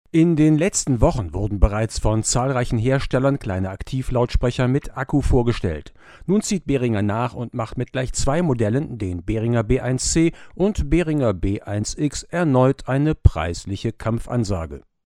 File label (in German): Sprache ohne Popschutz; SSL 2 RecPack; ohne 4K; SSL SMC 80